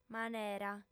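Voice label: "manera", female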